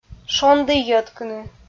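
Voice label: "шонді йӧткыны", Komi, female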